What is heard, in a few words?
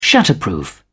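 "shatterproof", British English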